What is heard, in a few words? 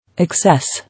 "Excess", English